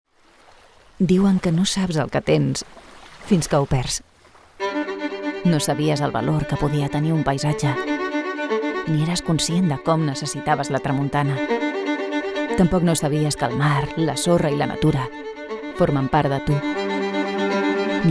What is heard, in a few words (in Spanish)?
Catalan Espana Femenina Adulta